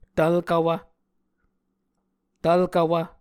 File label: "tvlkvwv", Muscogee